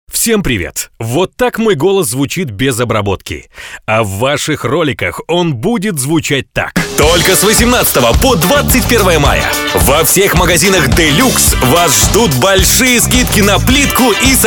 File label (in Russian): muzhskie golosa, diktory